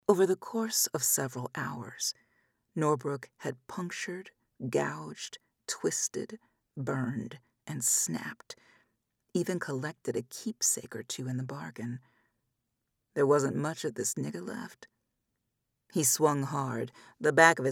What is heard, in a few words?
Dramatic Storytelling W Characters